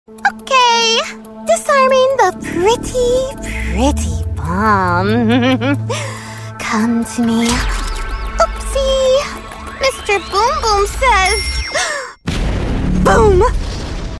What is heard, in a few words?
Crazy and fun; video-game